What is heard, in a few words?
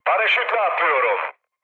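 Turkish bailout, mood low, speech; War Thunder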